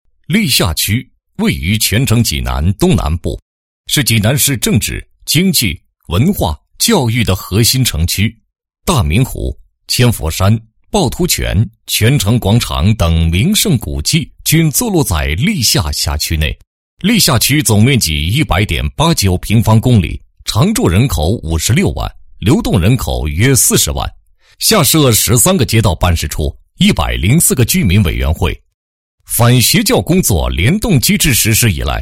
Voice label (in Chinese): 男 工作纪实; 记济南市历下区反邪教工作